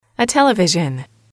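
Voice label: "a television", English, female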